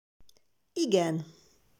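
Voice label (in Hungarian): "Igen", Hungarian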